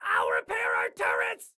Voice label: Self Repair Turrets, Excited Guy